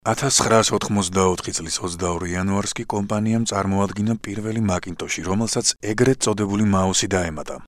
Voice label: Georgian male